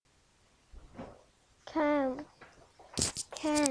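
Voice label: "can", spoken word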